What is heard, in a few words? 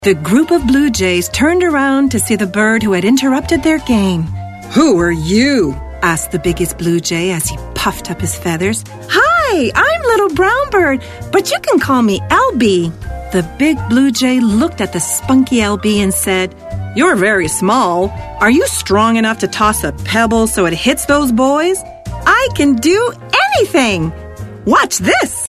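Canadian English F Character Voices, female; voice over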